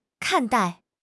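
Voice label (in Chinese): "看待", Chinese; HSK6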